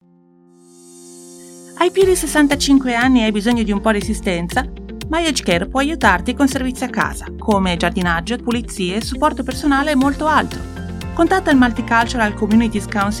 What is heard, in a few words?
italian; EnCompass; MCCSA